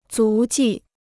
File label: "足迹", Chinese